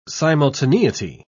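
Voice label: "simultaneity", British English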